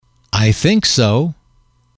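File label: i think so, English, male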